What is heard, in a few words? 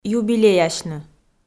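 "юбилеясьны", Komi, female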